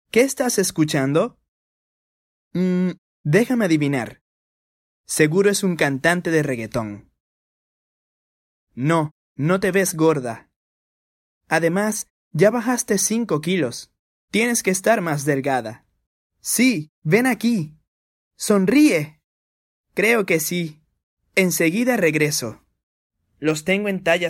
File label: Spanish (LA), Male